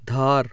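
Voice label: voiced aspirated dental, hindi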